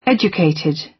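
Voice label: "educated", British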